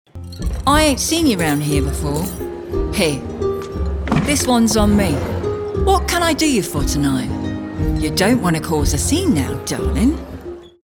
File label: Medieval Bar Wench Cockney